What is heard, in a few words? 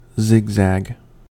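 "zigzag", US English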